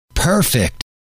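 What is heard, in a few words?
"perfect", male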